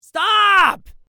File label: stahhhp yell